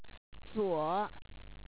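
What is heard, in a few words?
"zuo3", Chinese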